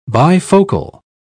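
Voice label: "bifocal", English, British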